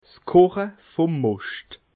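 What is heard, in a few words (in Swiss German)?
"koche", Alsatian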